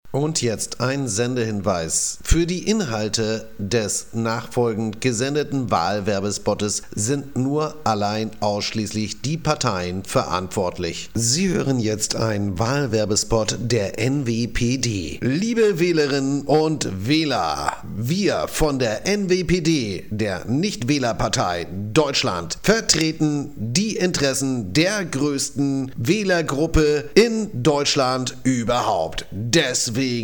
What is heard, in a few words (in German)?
wahlwerbung